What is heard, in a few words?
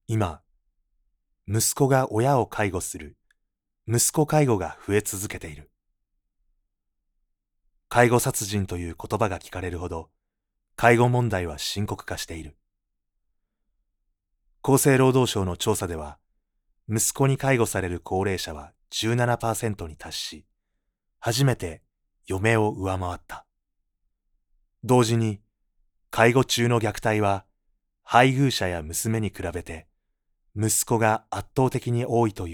male